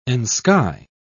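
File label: "ensky", British English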